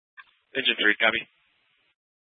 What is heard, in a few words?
Fire Dispatch